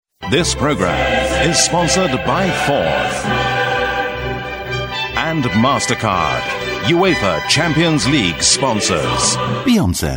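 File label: UK male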